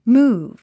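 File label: "move", English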